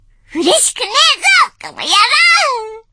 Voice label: voice, dubbing